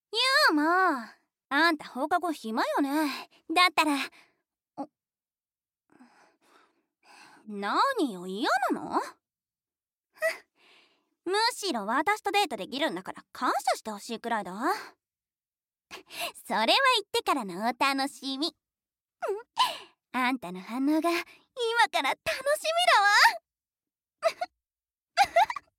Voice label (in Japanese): ツンデレ女子高生